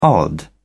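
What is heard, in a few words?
"odd", English, British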